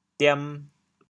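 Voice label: "diam2", Teochew, chaoyang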